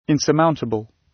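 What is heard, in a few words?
"insurmountable", British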